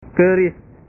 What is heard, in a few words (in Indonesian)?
"keris", bahasa